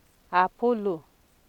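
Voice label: "apolo", Nigerian Pidgin, female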